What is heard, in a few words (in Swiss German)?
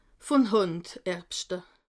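"hand", Alsatian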